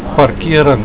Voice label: "parkering", Afrikaans; Parking

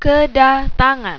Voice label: "Kedatangan", Indonesian

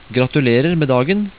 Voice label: "gratuler", Norwegian

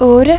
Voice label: "otte", Danish; eight

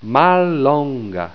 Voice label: "mallonga", esperanto

